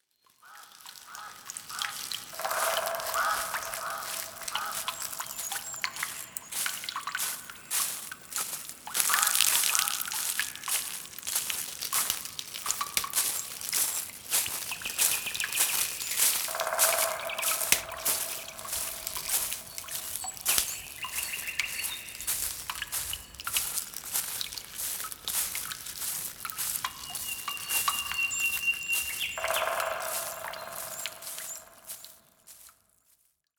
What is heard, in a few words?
ASMR meditation